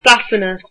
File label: England, irish